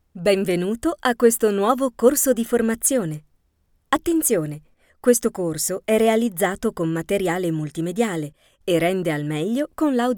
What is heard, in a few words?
e-learning